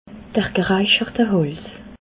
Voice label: "geraichertehals", alsace

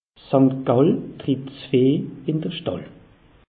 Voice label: "sankt gall", alsace; meteo

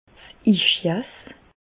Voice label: "ischias", Bas Rhin; medecine; sciatique

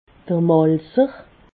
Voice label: "malzer", alsace

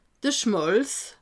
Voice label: "schmalz", alsace

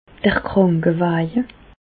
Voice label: "krankewaje", Alsace, Bas Rhin; medecine; ambulance

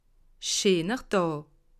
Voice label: "daa", alsace

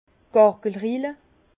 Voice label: "gargelrille", Bas Rhin; vin; rainure

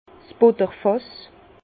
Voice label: "butterfass", Bas Rhin; vin; baratte

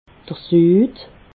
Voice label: "sud", alsace

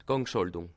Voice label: "gang", alsace

